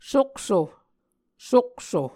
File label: "sokso", Muscogee